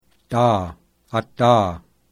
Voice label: devoiced voiced dental plosive; IPA